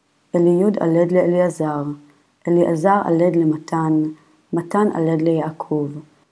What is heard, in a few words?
Matthew Reading Practice, Aramaic